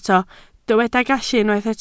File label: "gallu", Welsh